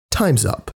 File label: times up en; SCP SL; Northwood